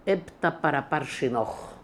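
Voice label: "Heptaparaparshinokh", pronunciations; RUS; Fourth Way; Beelzebub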